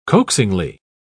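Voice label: "coaxingly", British English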